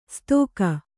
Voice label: "ಸ್ತೋಕ", Kannada

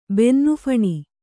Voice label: "ಬೆನ್ನುಫಣಿ", Kannada

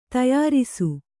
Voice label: "ತಯಾರಿಸು", Kannada

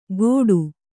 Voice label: "ಗೋಡು", Kannada

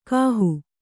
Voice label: "ಗಾಹು", Kannada

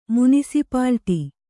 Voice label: "ಮುನಿಸಿಪಾಲಿಟಿ", Kannada

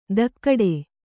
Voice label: "ದಕ್ಕಡೆ", Kannada

